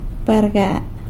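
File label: "barga", type of medium-sized grasshopper, San Lucas Quiaviní Zapotec; bargàa'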